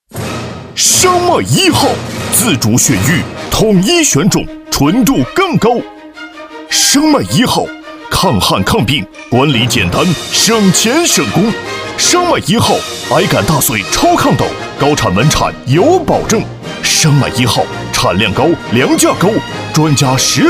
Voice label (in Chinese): 男, 广告